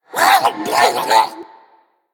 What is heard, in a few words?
Male Murloc Death